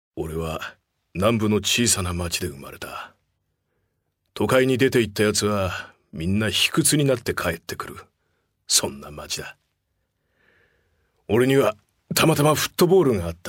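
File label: japanese male character; voice demos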